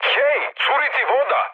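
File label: Serbian damaged water mood high; War Thunder; speech srb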